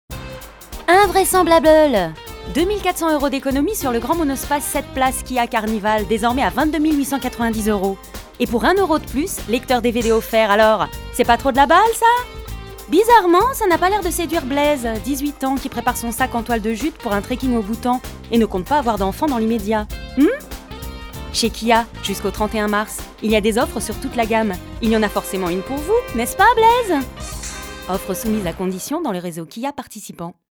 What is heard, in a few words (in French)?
pub, voix; kia